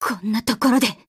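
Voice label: Voice, Japanese; Alva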